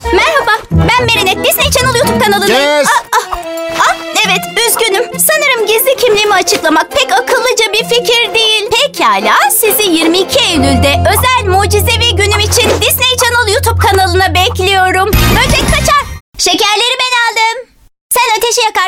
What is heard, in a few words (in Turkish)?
ANIMASYON